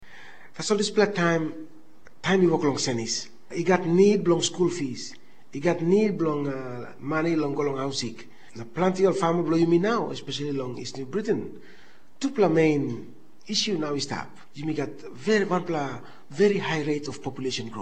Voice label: Tok Pisin; Mama Graun